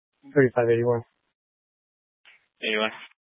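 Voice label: Police Dispatch, Grand Junction, Mesa County, Colorado; Police 1 Dispatch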